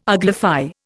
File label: "uglify", Indian